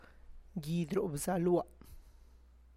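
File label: Tlacochahuaya Zapotec; my eyelid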